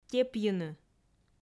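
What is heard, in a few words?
"тепйыны", Komi, female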